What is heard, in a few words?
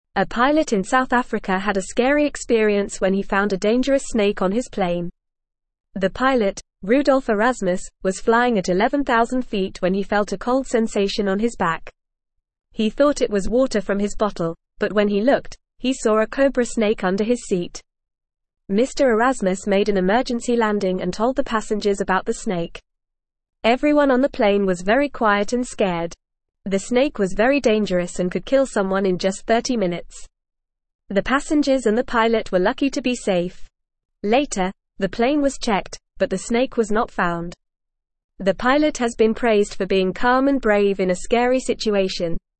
English Newsroom Beginner FAST Reading; Snake Found on Plane in South Africa